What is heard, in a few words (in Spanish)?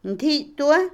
zapato, Otomí, mujer; Ropa; INALI